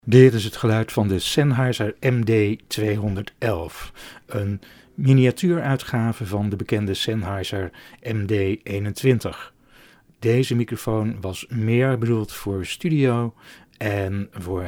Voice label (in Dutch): Sennheiser MD 211 sound NL